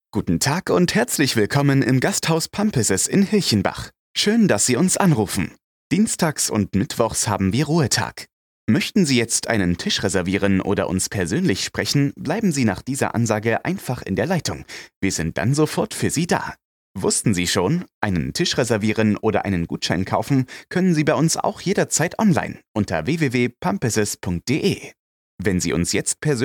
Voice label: German Voice Over sample